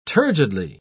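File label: "turgidly", British English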